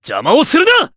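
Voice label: Vox Skill, Japanese; Clause ice; kingsraid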